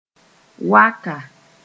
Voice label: "waka", Mooré

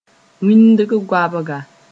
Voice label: "wĩndg goabga", moore

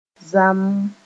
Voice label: "zamm", moore

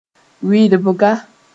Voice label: "wirbga", Moore

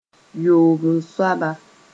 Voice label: "yoob soaba", moore; sixth

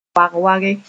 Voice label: "wag-wage", Mooré

